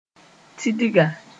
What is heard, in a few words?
"tidga", Moore; silver catfish